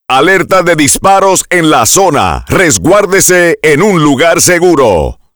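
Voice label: Active Shooter Warning Spanish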